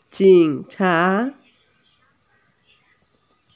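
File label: "police", Mandarin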